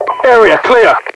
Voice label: clear, radio; codmod